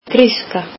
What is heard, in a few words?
"Greek", Icelandic